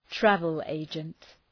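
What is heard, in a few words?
"travel agent", English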